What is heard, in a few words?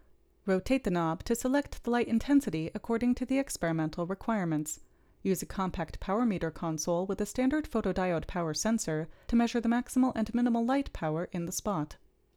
Gong Voiceover